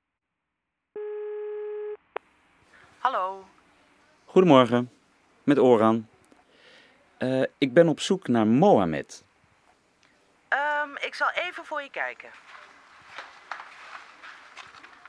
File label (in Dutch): Telefoon